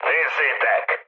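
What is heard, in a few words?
enemy detected, mood low, Hungarian; War Thunder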